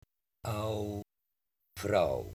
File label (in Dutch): au vrouw